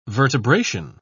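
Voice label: "vertebration", British English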